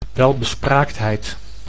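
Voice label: "welbespraaktheid", Dutch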